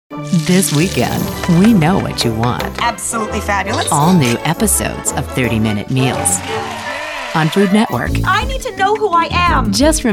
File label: PROMO